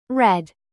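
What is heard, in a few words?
"live", verb, British; read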